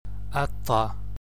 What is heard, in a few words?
broad t & ts, gaelic